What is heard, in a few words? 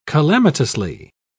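"calamitously", English, British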